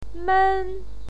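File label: "men" first tone, pinyin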